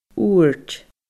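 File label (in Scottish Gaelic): "òrd", Scottish Gaelic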